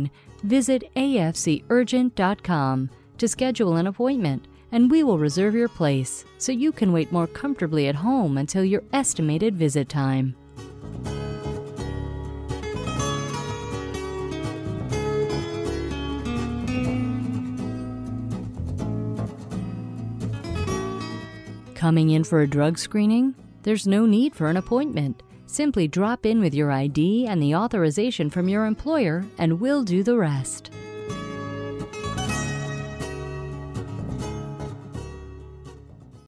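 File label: Medical Messages On Hold, Urgent Care; custom recorded marketing messages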